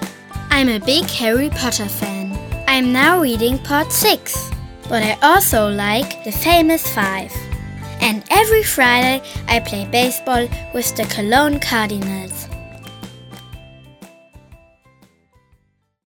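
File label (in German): Selbstvorstellung English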